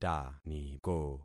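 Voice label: "taniko", concatenated syllables; Affixing experiment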